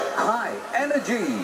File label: High Energy; Kermis Jingles Diversen